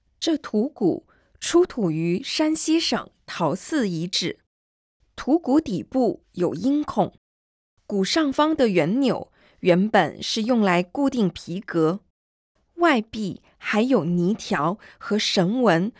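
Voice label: Mandarin, audio guide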